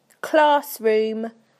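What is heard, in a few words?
"classroom", British English; PictureDictionary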